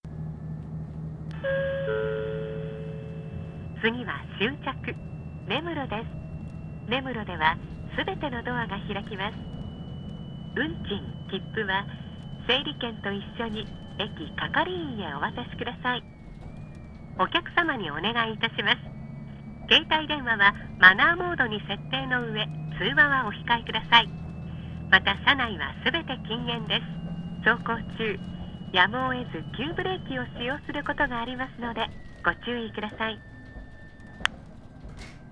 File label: nemuro next, train; 花咲線 hanasaki line; 次終着根室